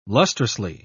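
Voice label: "lustrously", British English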